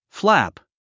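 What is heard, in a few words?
"flap", English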